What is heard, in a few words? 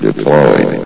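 "deployed", male; Source Engine; bshift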